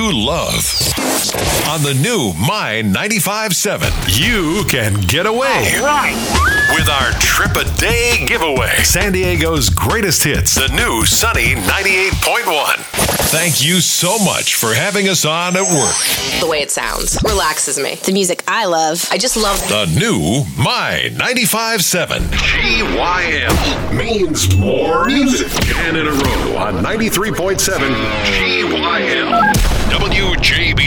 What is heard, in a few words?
AC Rock Country